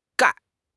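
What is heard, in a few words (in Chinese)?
"钙", Chinese; HSK6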